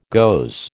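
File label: "goes", American English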